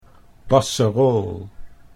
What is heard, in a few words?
"basserool", Dutch